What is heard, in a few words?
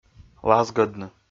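"лазгӧдны", Komi, male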